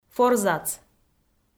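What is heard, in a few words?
"форзац", Komi, female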